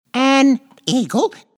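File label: "eagle", English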